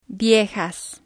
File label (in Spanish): "viejas", spanish